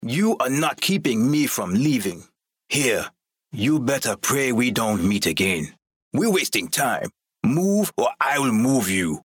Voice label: english american video games

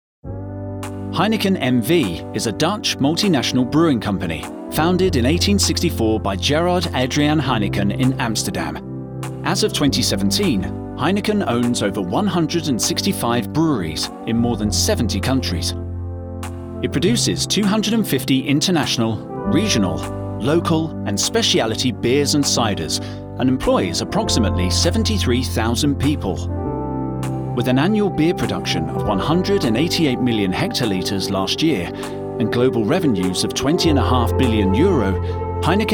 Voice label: english british e-learning; multi award-winning UK voice actor

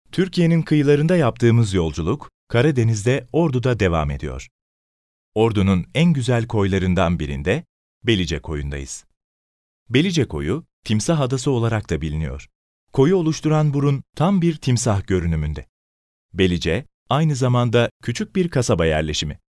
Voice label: turkish documentaries

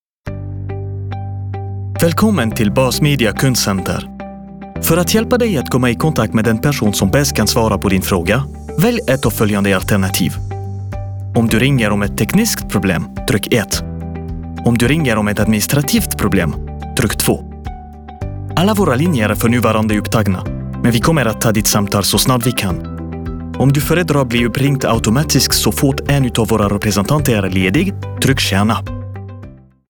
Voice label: swedish ivr

